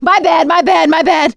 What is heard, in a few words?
witch chasing; survivor; producer